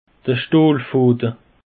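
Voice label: "stahlfade", Alsatian; peche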